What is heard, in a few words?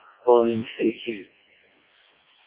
decoded speech, parrot